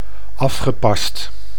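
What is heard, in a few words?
"afgepast", Dutch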